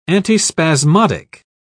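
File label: "antispasmodic", British English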